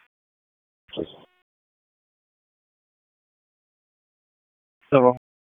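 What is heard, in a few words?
Raleigh Police Southeast District Dispatch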